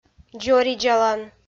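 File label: "дзоридзалан", Komi, female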